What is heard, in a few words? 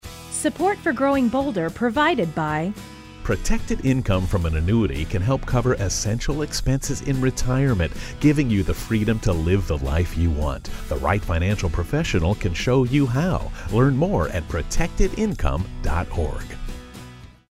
radio spot